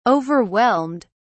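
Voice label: "overwhelmed", English, British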